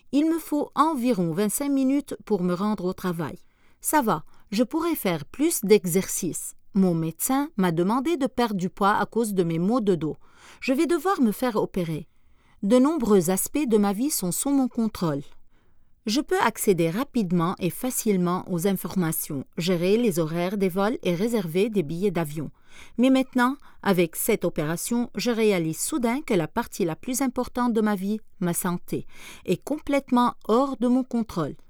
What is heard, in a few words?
French